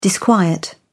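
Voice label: "disquiet", British English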